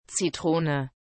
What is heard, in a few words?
"Zitrone", German